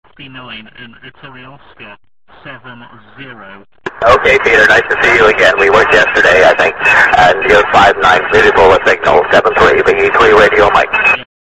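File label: 2nd amateur radio contact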